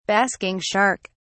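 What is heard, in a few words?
"basking shark", British English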